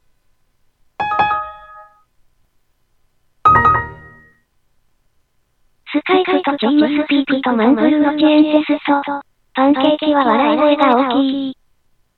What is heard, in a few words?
mumble